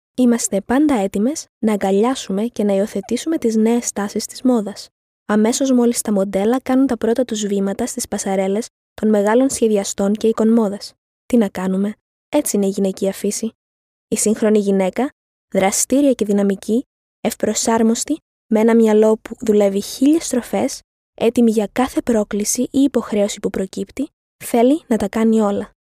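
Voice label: Greek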